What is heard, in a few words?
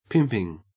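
"pimping", Australian English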